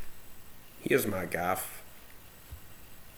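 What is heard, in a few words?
"gaff", slang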